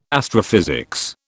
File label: "astrophysics", British